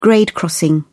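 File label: "grade crossing", English, British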